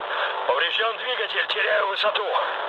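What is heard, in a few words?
Russian damaged engine mood low, speech; War Thunder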